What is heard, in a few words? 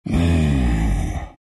treant happy